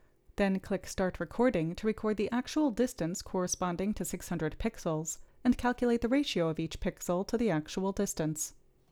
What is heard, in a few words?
Gong Voiceover